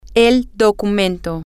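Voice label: the document, Spanish, female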